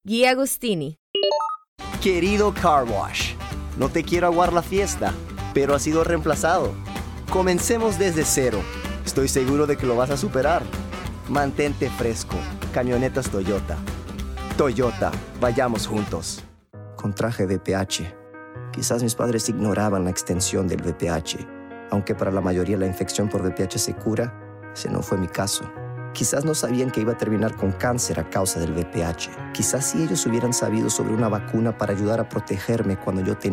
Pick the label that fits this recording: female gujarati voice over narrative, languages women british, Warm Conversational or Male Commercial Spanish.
Male Commercial Spanish